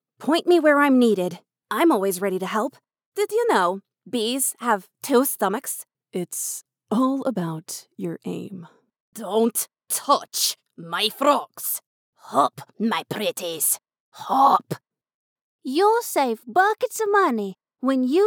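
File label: US English Characters; GoLocalise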